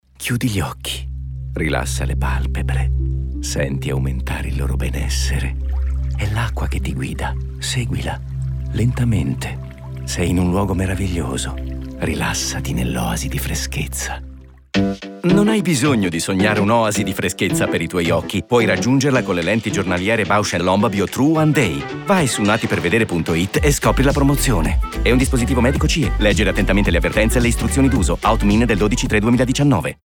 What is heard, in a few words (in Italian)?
radio; bausch and lomb